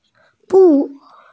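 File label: "bu4", Mandarin Chinese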